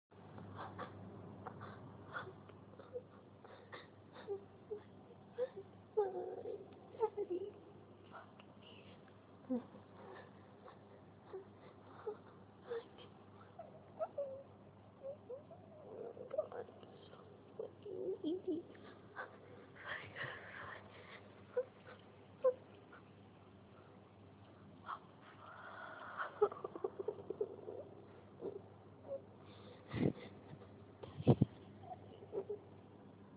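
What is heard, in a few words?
[F4M][sub][sick but needy] [background noise]; pup girl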